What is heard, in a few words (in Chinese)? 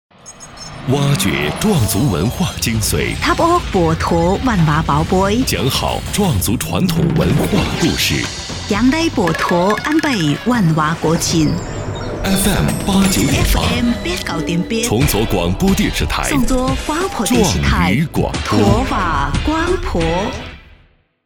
现代 洋气 台宣